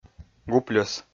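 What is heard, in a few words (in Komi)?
"гупльӧс", Komi, male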